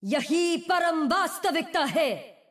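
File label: English; 秩序之光（守望先锋）; 终极技能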